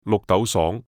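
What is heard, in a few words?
"綠豆爽", Cantonese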